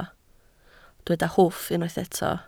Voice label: "hwff", Welsh